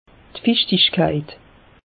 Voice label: "fichtichkeit", alsace; meteo

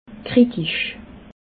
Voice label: "gritisch", alsace

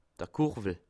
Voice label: "kurwel", Alsace

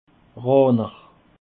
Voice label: "raaner", alsace

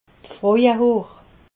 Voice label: "frauehoor", alsace

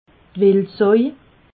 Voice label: "wildsoi", alsace

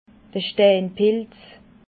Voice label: "steinpilz", alsace

